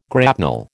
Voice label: "grapnel", American

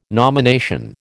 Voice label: "nomination", American

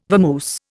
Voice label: "vamoose", Indian

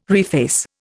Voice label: "reface", Indian

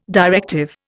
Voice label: "directive", Indian

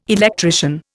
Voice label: "electrician", Indian

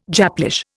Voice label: "Japlish", Indian

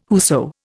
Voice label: "whoso", Indian

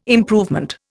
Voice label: "improvement", Indian

